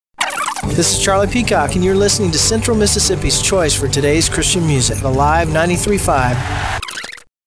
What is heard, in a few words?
Artist Liner